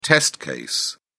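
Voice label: "test case", English, British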